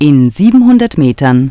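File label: "in 700 Metern", German, Navigation; DISTEFORA Navigation; Mobile Navigator Notebook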